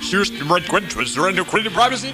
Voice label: suess sur quent kwent aire soo preevacee, Voice gibbrish